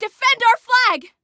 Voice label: Defend Flag, Female; Female 02